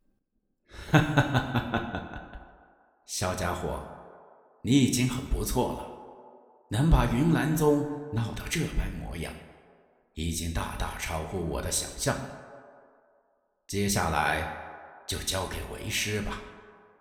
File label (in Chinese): 动漫; 斗破苍穹药老